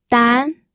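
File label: "dan", pinyin, second tone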